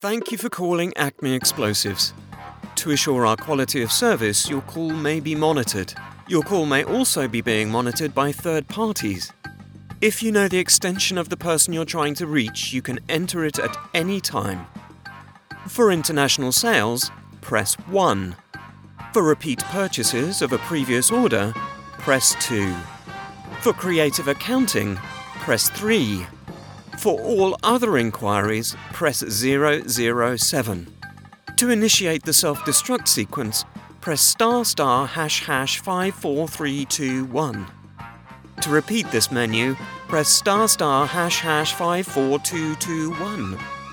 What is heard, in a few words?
IVR Example